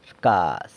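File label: "rcaz", wants, San Lucas Quiaviní Zapotec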